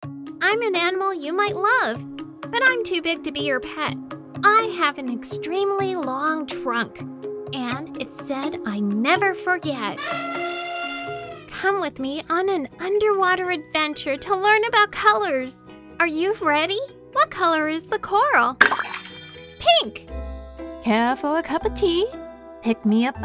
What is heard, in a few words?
K12 Interactive Media Talking Toys